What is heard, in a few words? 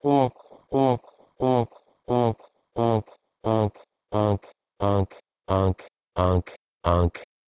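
Proto-Indo-European h3okw to Urdu aankh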